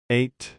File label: "eight", English